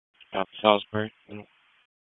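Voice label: Raleigh Police Southeast District Dispatch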